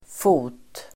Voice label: "fot", Swedish